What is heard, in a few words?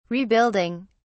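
"rebuilding", British English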